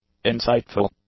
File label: "insightful", English; adjectives